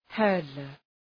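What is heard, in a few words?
"hurdler", English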